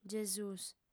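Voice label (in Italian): "gesùs", lingua sarda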